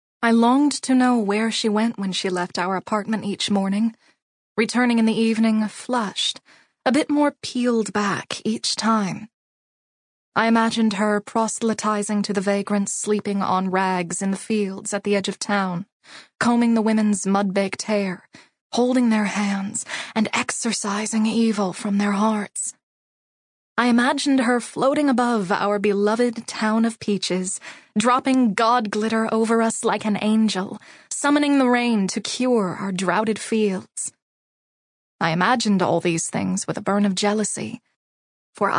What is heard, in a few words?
LitFic 1st Person